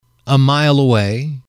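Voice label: "a mile away", English, male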